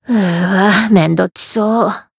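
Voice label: Voice encount fear, Japanese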